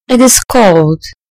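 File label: "cold", British English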